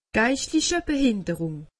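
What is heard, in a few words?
"geistlichbehinderung", Alsatian; medecine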